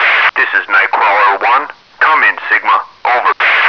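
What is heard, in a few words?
radio message; recorder; svencoop; hunger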